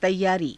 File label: "taiyaarii", hindi